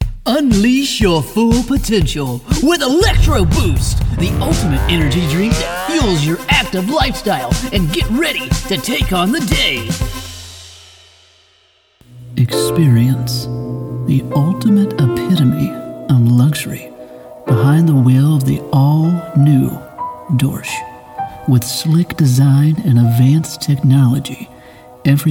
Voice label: commercial, trans woman voice actor

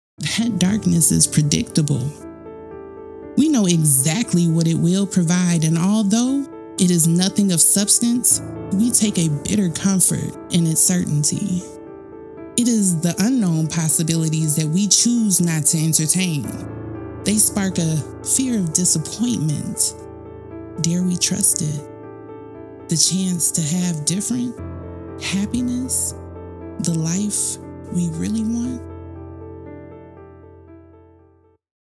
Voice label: Narration; Beauty For Ashes

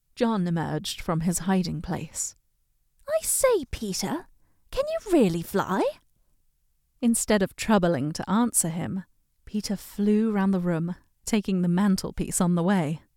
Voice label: character voices; Peter and Wendy; British

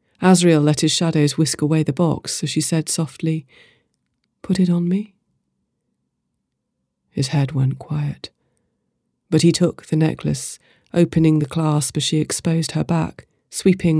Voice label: NARRATIVE REEL, Audiobook Narrator

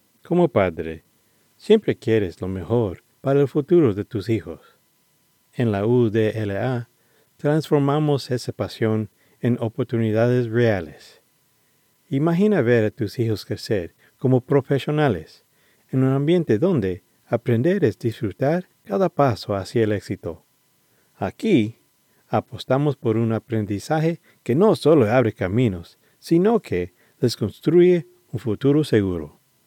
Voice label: Spanish audition; UDLA

